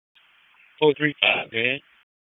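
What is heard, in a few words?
Police Downtown District Dispatch, p25; wake p25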